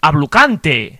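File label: awesome, Finnish; gcompris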